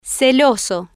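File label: "celoso" Spanish, female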